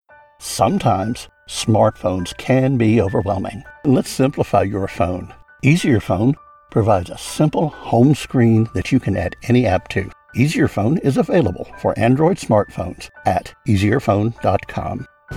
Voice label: Demo Mono, Voiceover Artist for Audiobooks, Docs & Tours; professional male voiceover artist